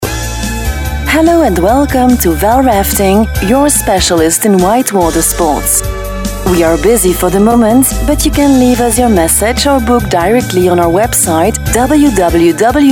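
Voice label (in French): voix féminine anglaise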